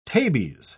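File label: "tabes", British English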